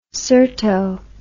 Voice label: "cyrto", english